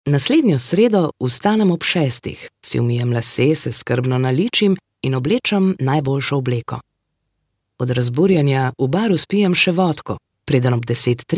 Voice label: slovenian audiobooks

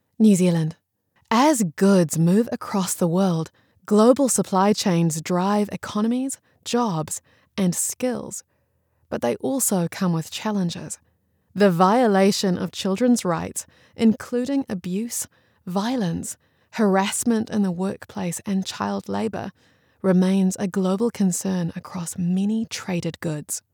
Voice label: English New Zealand political ads

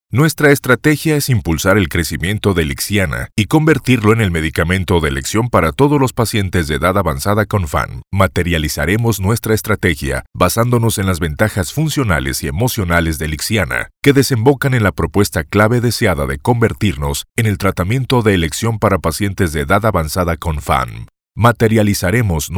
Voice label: spanish latin american e-learning

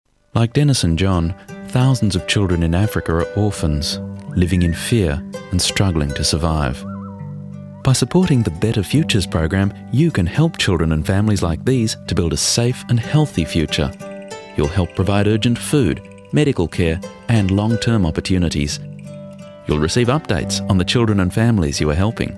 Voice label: english australian tv shows

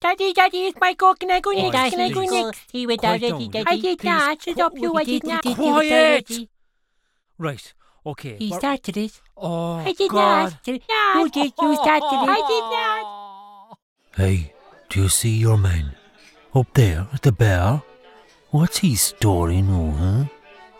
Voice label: english irish animation

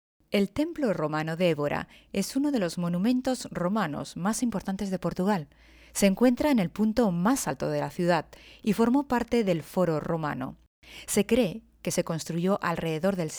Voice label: spanish audiobooks

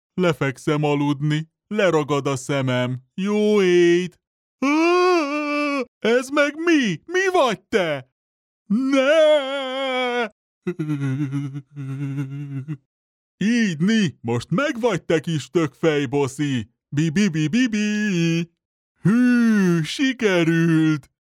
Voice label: hungarian animation

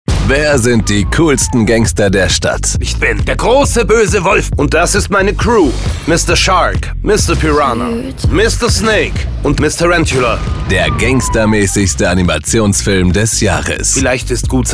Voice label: german movie trailers

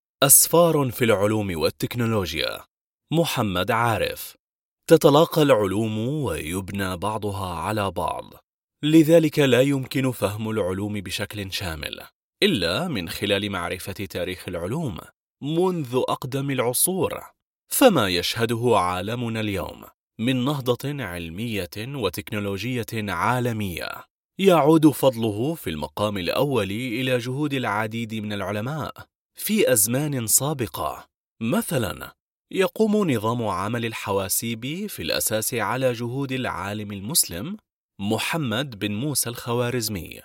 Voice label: arabic audiobooks

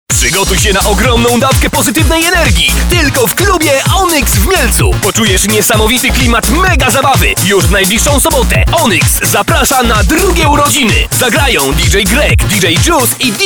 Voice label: polish narration

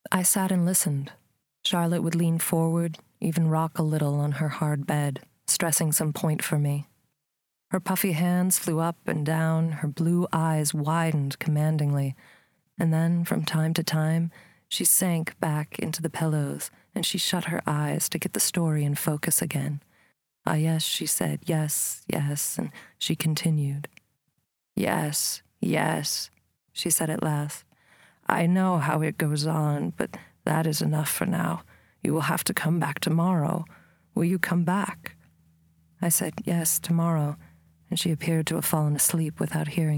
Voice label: english american audiobooks

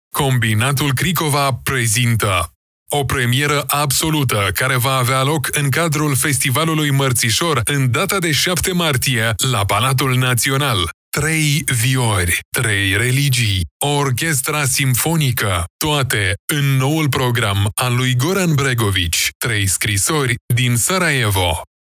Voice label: romanian movie trailers

